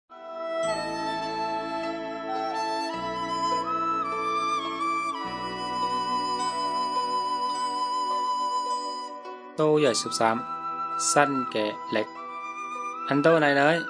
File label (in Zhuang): good news zhuang nanning fushu